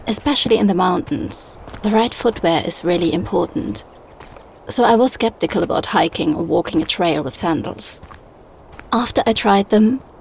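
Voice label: Montage English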